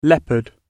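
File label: "leopard", English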